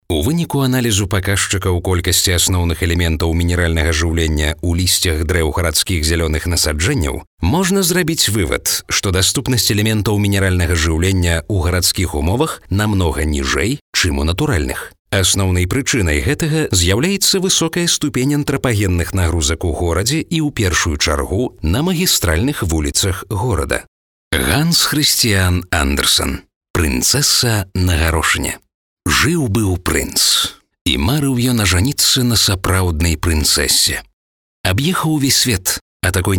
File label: Belarusian male